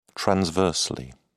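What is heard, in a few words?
"transversely", British English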